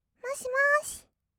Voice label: 「もしもし」, kawaii voice; 挨拶

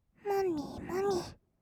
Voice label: "もみもみ", kawaii voice; ASMR; 色々なワンショット

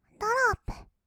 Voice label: "ドロップ", kawaii voice; ASMR; 1200 kawaii voice!!; 音ゲー

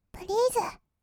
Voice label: "プリーズ", kawaii voice; ASMR; 1200 kawaii voice!!; 音ゲー

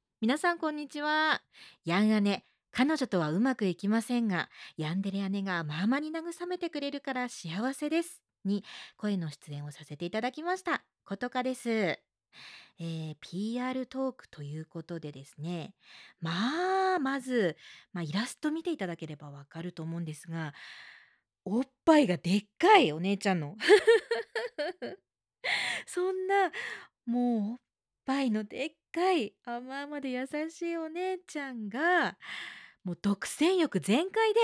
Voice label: PRボイス

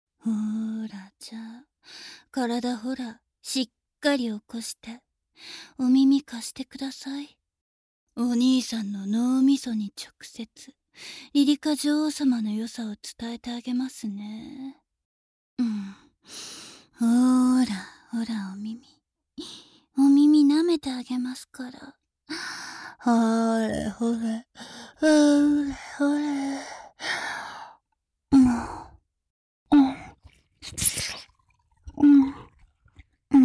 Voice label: 耳舐め責め, ASMR; SEなし

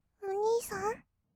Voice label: "お兄さん", kawaii voice; ASMR; 二人称; 1200 kawaii voice!!

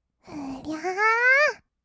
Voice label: "うりゃあー", kawaii voice; ASMR; 色々なワンショット

